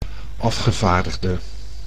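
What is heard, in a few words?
"afgevaardigde", Dutch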